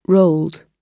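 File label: "rolled", British English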